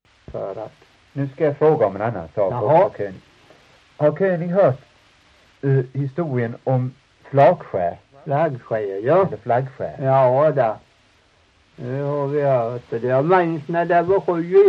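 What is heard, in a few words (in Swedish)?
Torhamn Blekinge, Svenska sagor dialekter; Folkminnen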